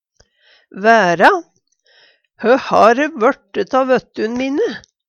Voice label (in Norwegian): o vera, Numedalsmål; Hø ha're vørte tå vøttun mine?; Verb